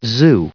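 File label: "zoo", US English, recite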